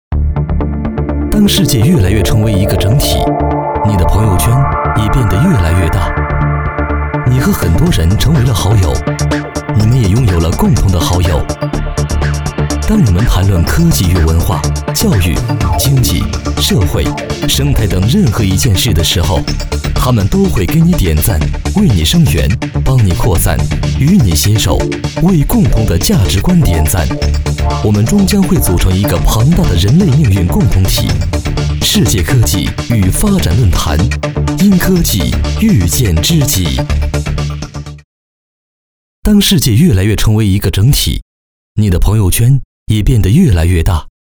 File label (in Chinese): 国语 男声 专题片-大气科技感 大气浑厚磁性 沉稳 娓娓道来; 宣传片-解说-河南省广东商会解说词